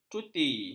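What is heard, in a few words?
"cuti", Malay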